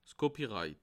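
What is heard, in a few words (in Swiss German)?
"copyright", Bas Rhin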